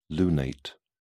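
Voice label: "lunate", British English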